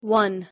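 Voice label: "one", English pronunciation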